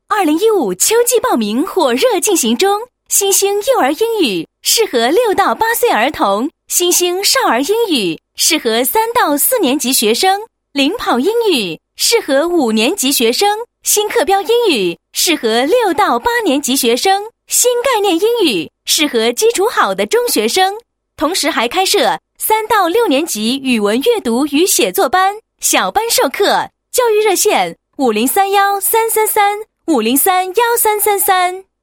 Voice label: 女 广告 英语幼儿园; 新星

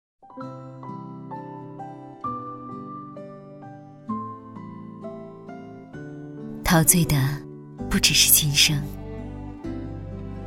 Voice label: 女 电视广告 优雅温馨 床垫, 国语女声配音

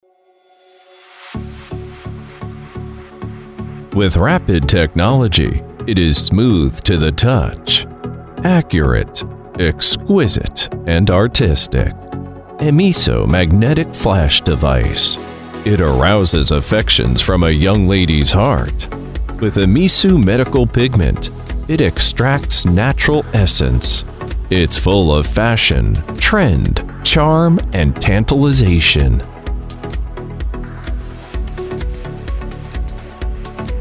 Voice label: 广告, 美容产品柔和

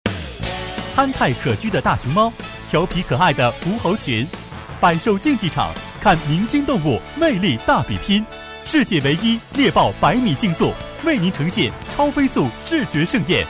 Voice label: 男 上海野生动物园, 国语男声配音

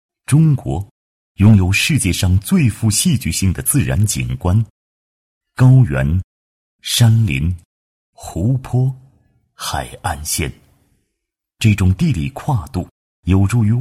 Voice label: 男, 浑厚磁性, 国语男声配音; 食物原材料; 舌尖

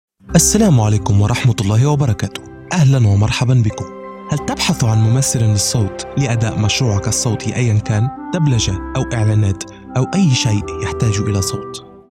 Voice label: 阿拉伯语男 温情简介

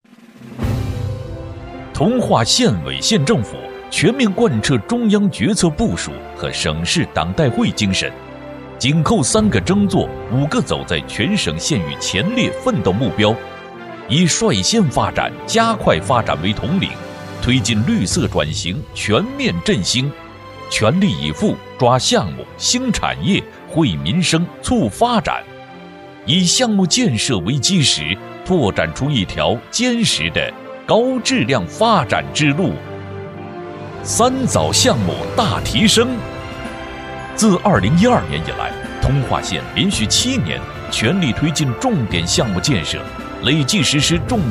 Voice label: 男 宣传片 政府形象宣传片

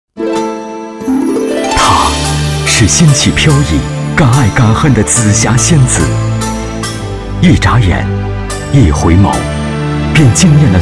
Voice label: 男 栏目包装; 朱茵出场词

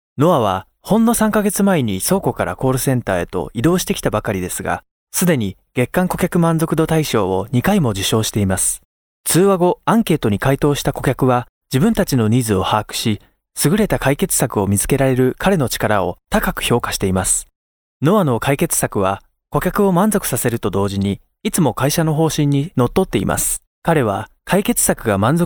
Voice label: 日语男 干音

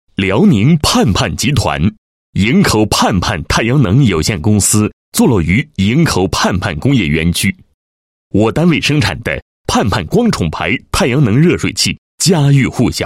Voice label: 男 企业 宣传片; 盼盼企业宣传片

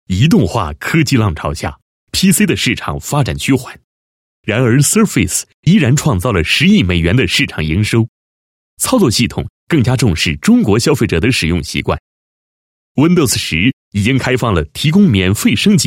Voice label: 男 栏目包装 宣传, 国语男声配音

